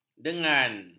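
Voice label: "dengang", Malay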